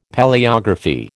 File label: "Palaeography", American